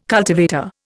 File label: "cultivator", Indian